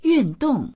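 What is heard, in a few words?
"yun4dong4", Chinese; yùndòng; 运动; 運動; 運動する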